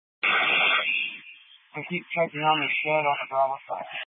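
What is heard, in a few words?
Incident Tac 1, Scanner audio recording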